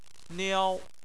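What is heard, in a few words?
"niao" first tone, Chinese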